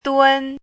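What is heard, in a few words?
"dun1", Mandarin Chinese syllable, pinyin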